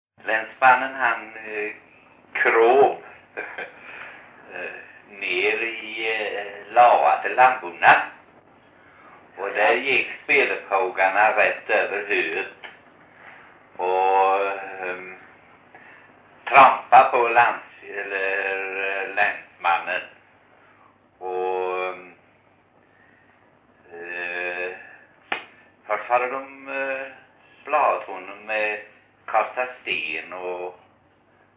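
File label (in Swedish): Rolfstorp Halland, dialekter; Folkminnen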